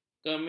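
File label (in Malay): "kemit", Malay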